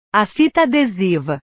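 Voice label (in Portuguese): "a fita adesiva", Portuguese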